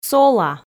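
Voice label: "сола", Komi, female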